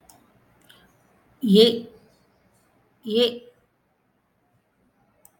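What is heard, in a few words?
e (the short e, as in 'etiquette', Tamil, vowels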